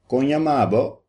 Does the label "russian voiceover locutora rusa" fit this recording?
No